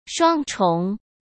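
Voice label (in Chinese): "双重", Mandarin Chinese; hsk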